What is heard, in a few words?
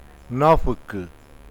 "stone piedra", uitoto